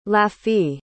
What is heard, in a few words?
"laughee", pronounce